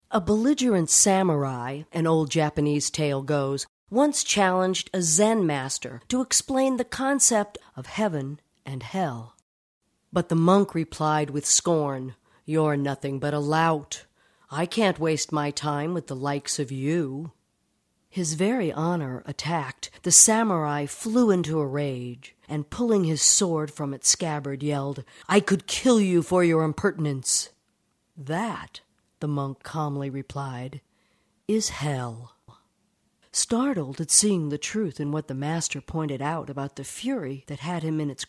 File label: Non-Fiction Audiobook